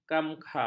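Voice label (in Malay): "kamkha", Malay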